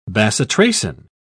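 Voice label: "bacitracin", British English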